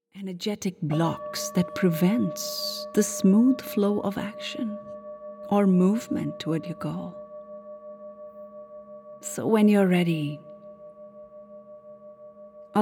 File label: Meditation Guided; Take Action